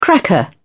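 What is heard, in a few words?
"cracker", British English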